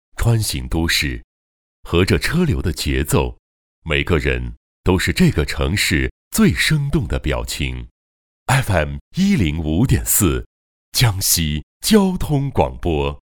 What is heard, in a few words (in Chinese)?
男 交通广播 FM